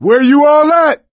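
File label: lost call, voice, male; Left 4 Dead 2; coach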